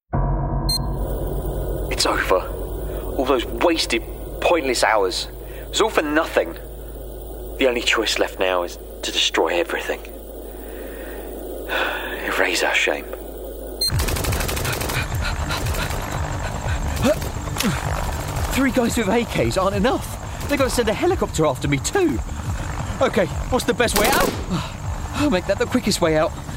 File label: gaming character